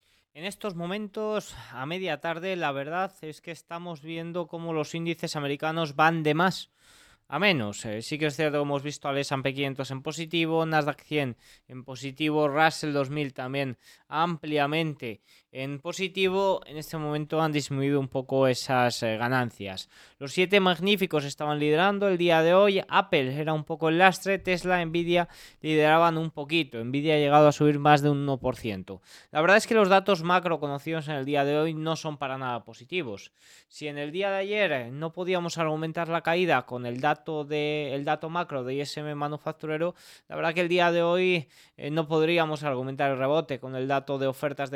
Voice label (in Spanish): radio; noticias bolsa